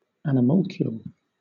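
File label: "animalcule", British English; pronunciations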